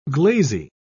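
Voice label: "glazy", British English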